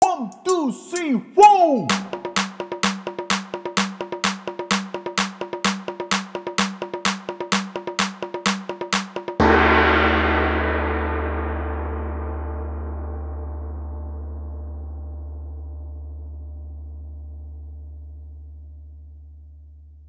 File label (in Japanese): 早口言葉リズム（上級編-速い）